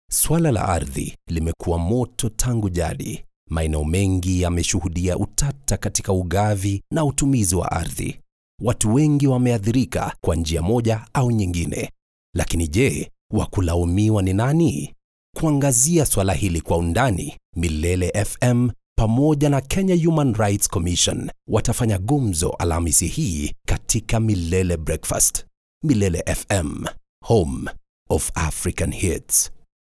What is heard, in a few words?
swahili corporate videos